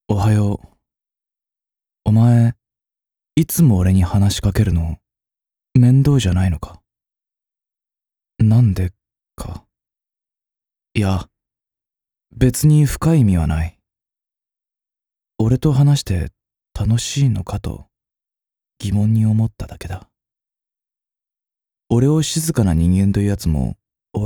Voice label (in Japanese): 「おはよう」クール